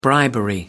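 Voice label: "bribery", English, British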